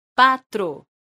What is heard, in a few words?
"patro", esperanto; leciono1